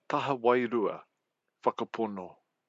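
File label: "taha wairua whakapono", Māori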